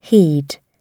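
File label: "heed", British English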